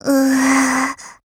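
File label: LOW MOOD, Japanese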